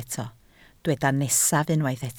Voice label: "nesaf", Welsh